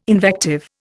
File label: "invective", Indian